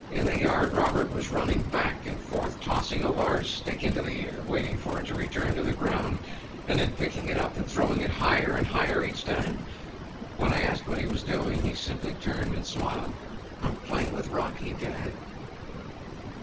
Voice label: male noisy